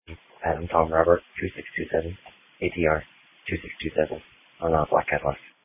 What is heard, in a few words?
Police Dispatch